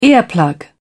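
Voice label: "earplug", British English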